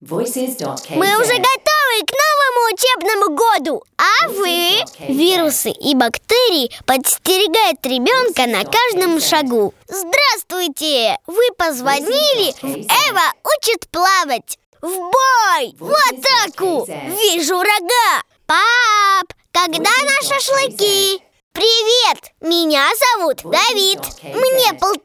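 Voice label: Russian kid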